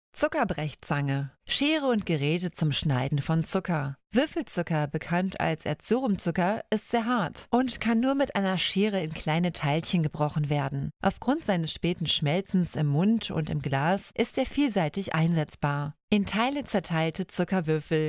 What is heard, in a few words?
deutsch